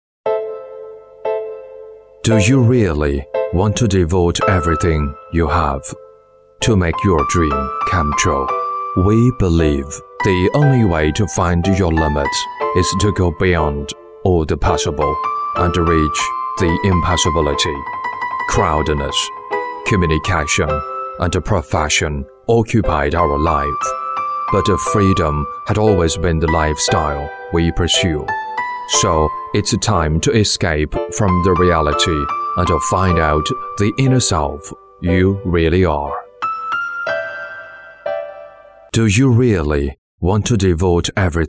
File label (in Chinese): 英男, 走心口白; 找自己